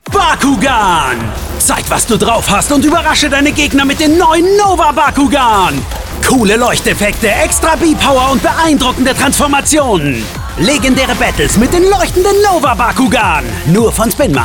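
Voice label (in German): Spots OFF, Sprecher, Männer; Bakugan; Nova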